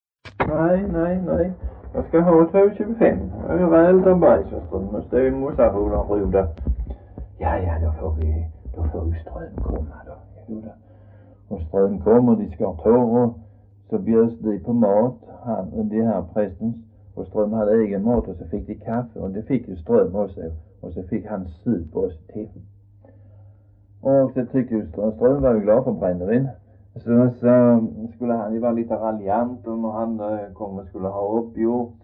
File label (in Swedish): Röddinge, dialekter; Folkminnen